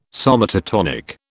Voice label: "somatotonic", British